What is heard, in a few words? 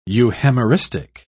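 "euhemeristic", British English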